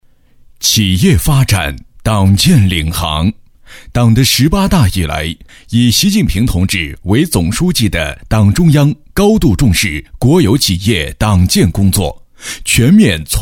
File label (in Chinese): 男 国企党建